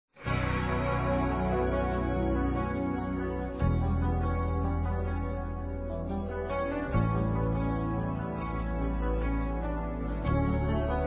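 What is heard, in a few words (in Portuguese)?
AMIGA, male, Telemensagens Dia das Mães; Masc; Telemensagens 2017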